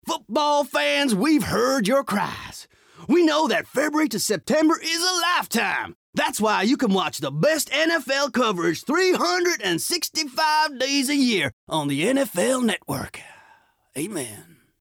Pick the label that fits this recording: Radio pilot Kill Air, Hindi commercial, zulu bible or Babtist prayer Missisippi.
Babtist prayer Missisippi